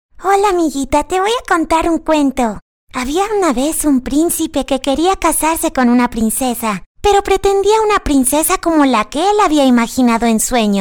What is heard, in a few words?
locutora infantil mexicana mexican child voiceover; locutora mejicana de voz infantil